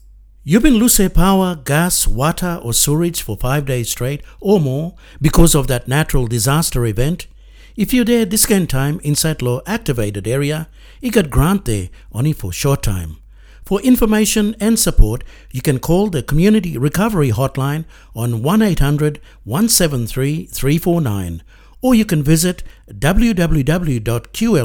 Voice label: yumplatok essential services hardship assistance